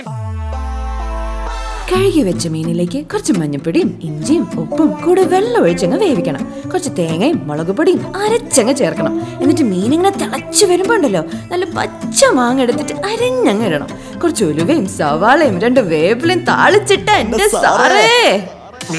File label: Restaurant - Perky Tone - Malayalam; Cheenavala